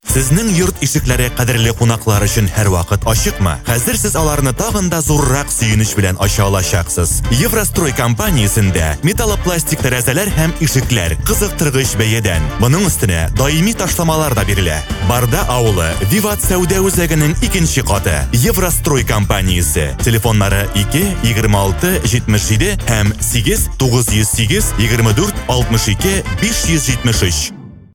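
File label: TAT, Tatar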